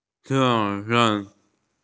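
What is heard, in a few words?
"responsibility", Mandarin; ze2ren4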